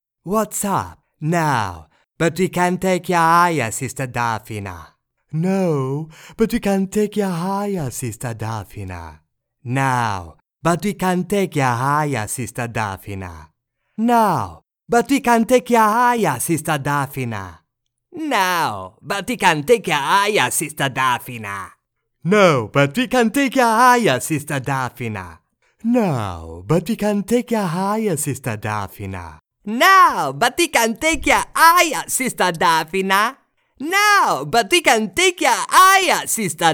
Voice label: Movie Characters En